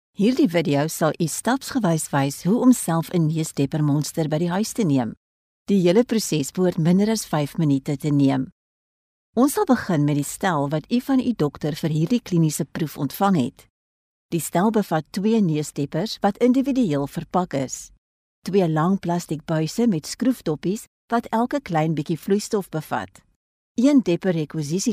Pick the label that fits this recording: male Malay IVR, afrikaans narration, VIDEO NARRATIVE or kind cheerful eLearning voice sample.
afrikaans narration